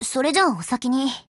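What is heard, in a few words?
BLACK ACTION, Japanese; GF Alarm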